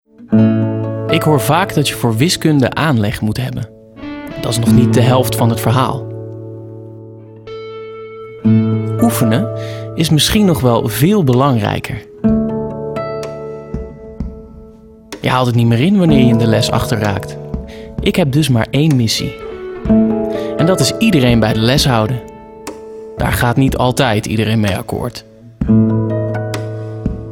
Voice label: Voice Warm